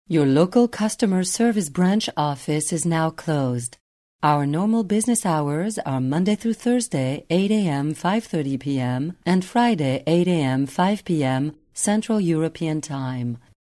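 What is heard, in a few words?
French, female, English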